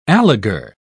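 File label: "alegar", British English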